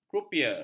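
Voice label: "krupier", Malay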